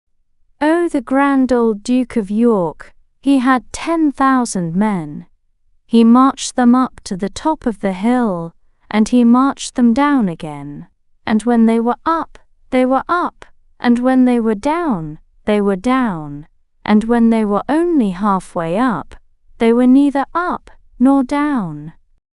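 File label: female British, classic rhymes; The Grand Old Duke of York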